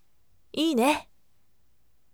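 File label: voice, firm; iine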